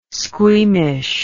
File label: "squeamish", English